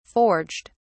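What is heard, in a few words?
"forged", British English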